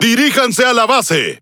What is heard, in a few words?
Es Speech Quick Commands Enemy Base; World Of Warships